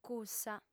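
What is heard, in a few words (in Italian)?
"cussa", lingua sarda, female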